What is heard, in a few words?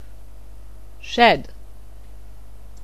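"shed", English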